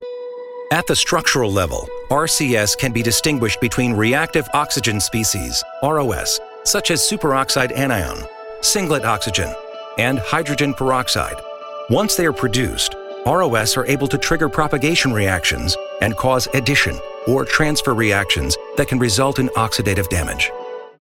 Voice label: e-learning medical technical medical